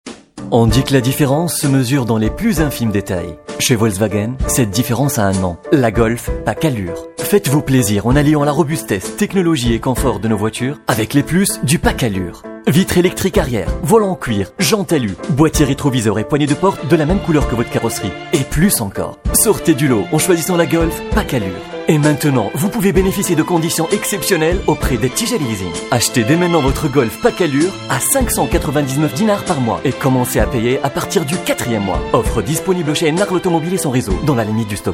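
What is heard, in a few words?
french tunisian male commercial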